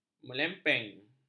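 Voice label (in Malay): "melempeng", Malay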